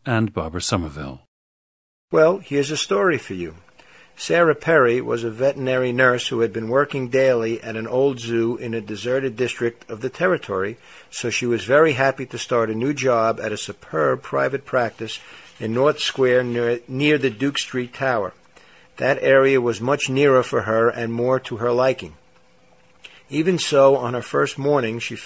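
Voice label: new york, International Dialects of English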